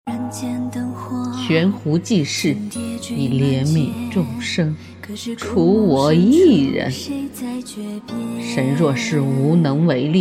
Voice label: 古风仙侠

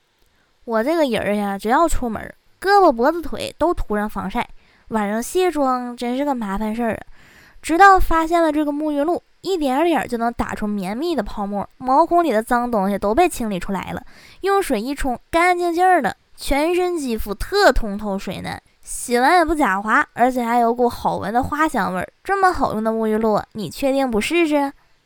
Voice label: 沐浴露东北女卖货; 西施

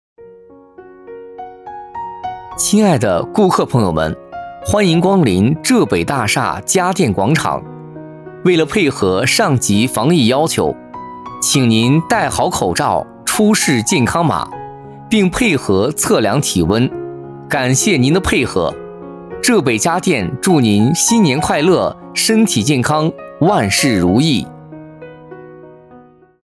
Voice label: 商场广播防疫提示

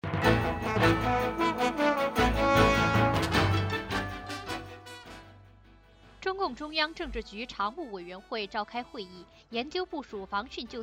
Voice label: 新闻

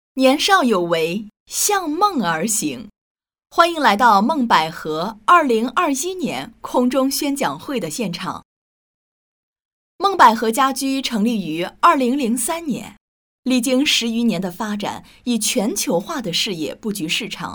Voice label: 专题稳重知性热情宣讲; 企业宣讲会

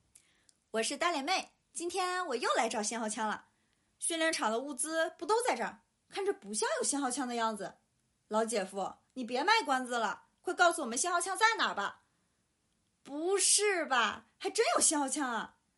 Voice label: 游戏类搞笑类短视频配音; 练习样例

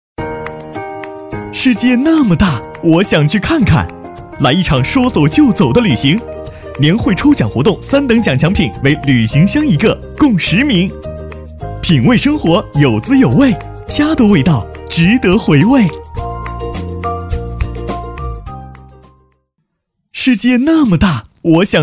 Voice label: 广告青春活力

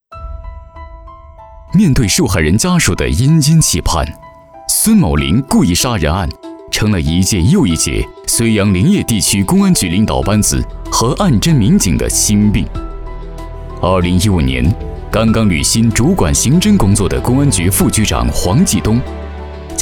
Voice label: 法制旁白; 天网旁白

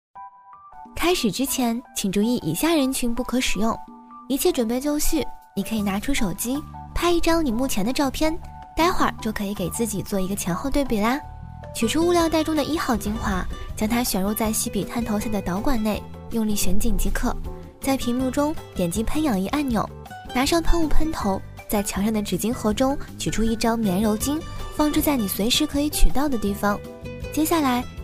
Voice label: 口播介绍美容院

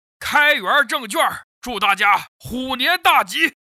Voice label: 东北大哥

